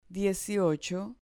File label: "dieciocho", Spanish